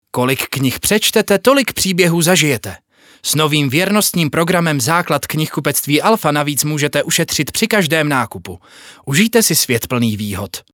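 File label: Czech, male, commercial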